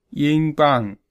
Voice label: "ying bang", Mandarin